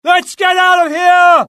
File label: alert, UK; mohaa; dialogue